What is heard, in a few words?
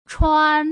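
"chuan", Putonghua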